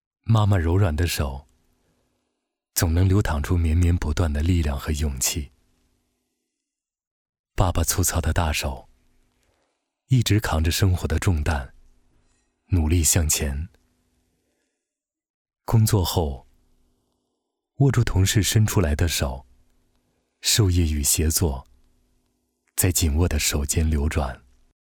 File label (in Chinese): 男 旁白